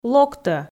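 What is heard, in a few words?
"локтӧ", Komi, female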